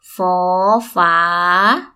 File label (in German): foor faa, thai